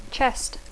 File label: "chest", English